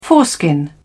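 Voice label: "foreskin", British English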